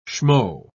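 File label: "schmo", British English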